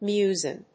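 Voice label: "muezzin", English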